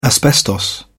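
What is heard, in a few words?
"asbestos", British English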